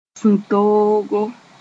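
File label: "sũ-toogo", Mooré; anger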